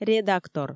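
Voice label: "редактор", Komi, female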